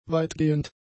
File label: "weitgehend", German; adjectives